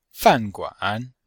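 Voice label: "fan4guan3", Chinese; Cours-de-Chinois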